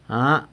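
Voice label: "a", yes, San Lucas Quiaviní Zapotec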